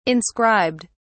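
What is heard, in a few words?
"inscribed", British English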